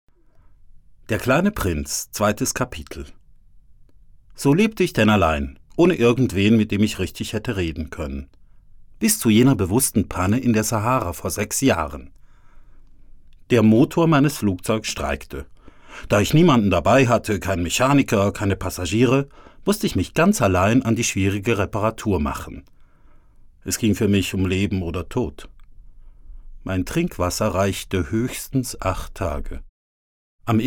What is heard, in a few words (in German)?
Hoerbuch; Der kleine Prinz